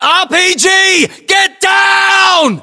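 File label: threat rpg, battlechatter, British; english; uk; localized english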